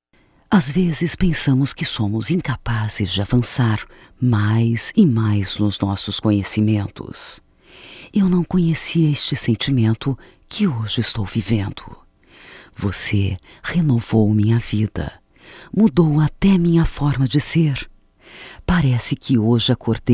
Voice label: O Amor Feminino, Só Voz; Telemensagens; MOMENTOS DE AMOR

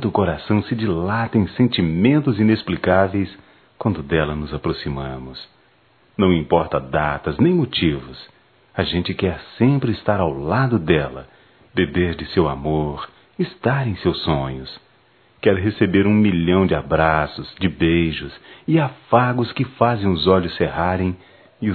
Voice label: Dia da Mulher p esposa m, Só Voz, masc; Telemensagens; Telemensagens 2017

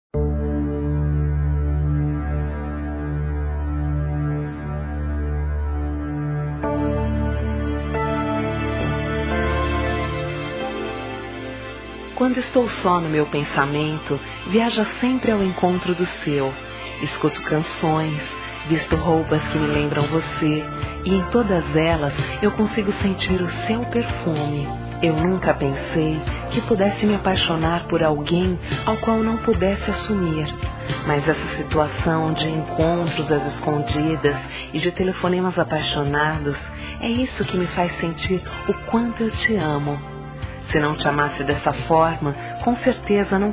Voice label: Telemensagens Amor Fem; Telemensagens 2017

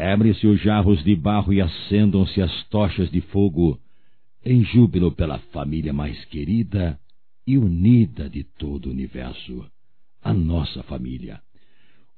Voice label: Bodas de Ouro Masculino; Bodas de casamento; Telemensagens 2017

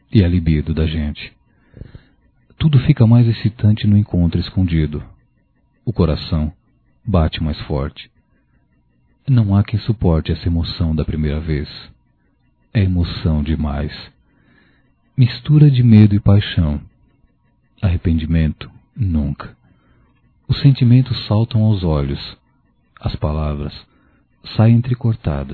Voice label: Amor Proibido Masculino, Telemensagens; Telemensagens 2017

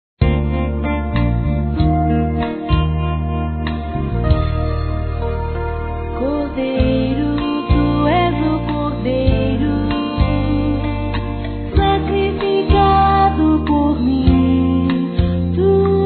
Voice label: aniv. Namorado f, Gospel, fem; Telemensagens; Telemensagens 2017

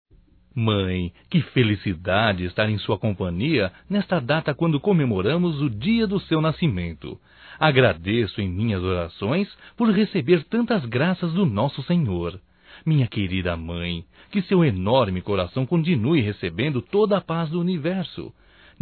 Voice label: Aniversário Mãe Evangélico Masculino; Aniversarios; Telemensagens 2017

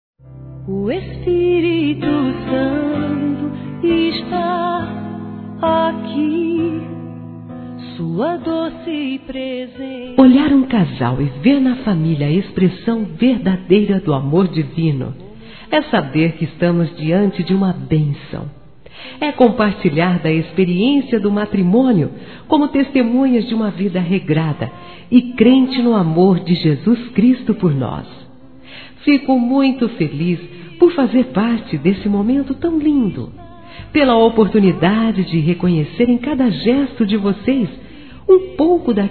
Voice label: Evangélico Aniversário Casamento Geral Feminino; Aniversários

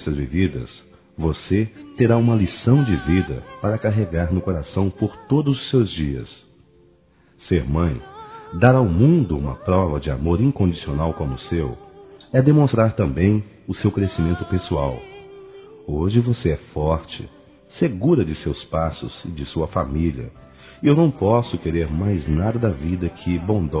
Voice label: PAI P FILHA MÃE, Telemensagens Dia das Mães, Masc; Telemensagens 2017

